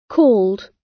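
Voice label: "called", British English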